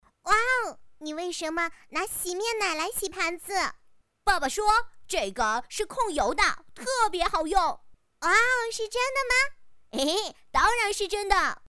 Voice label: 女 卡通对话

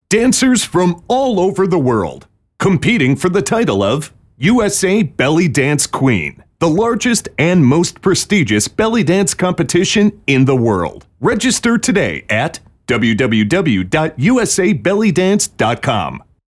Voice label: 外籍男 网站广告

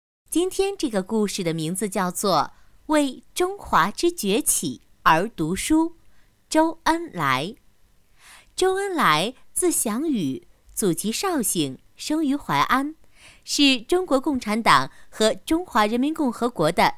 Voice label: 女 故事; 故事-为中华崛起而读书

